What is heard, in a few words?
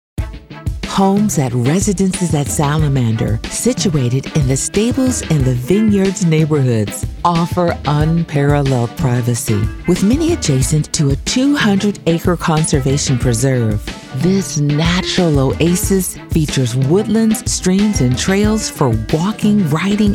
CORPORATE